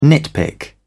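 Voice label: "nitpick", British English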